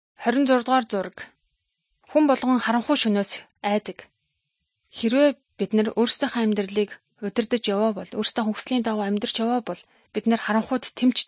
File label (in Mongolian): good news mongolian